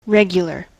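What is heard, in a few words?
"regular", US English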